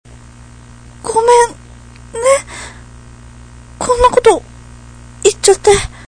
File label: sorry w